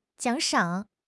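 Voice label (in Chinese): "奖赏", Chinese; HSK6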